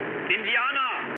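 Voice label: German enemy detected mood med, speech; War Thunder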